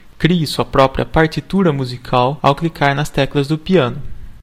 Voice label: piano composition, pt_BR; gcompris